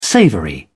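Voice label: "savoury", English, British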